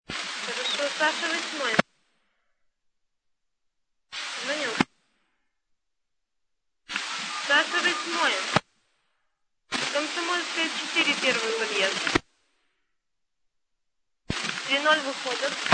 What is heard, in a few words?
taxi; tiraspol